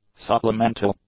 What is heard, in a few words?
"supplemental", English; adjectives